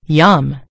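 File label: "yum", American English; gcompris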